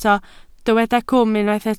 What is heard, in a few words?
"cwm", Welsh